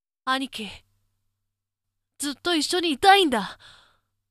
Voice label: boy